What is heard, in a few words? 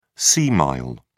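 "sea mile", English, British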